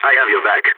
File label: covering friend, balkan; vo